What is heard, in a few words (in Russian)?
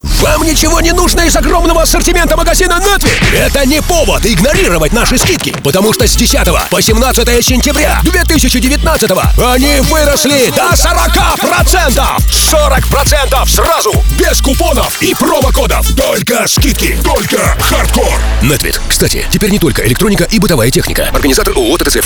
audiorolik; Netvit